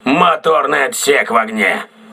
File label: voice message engine room damaged, crew ships russian